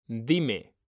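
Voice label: "dime", Spanish, male; mekanta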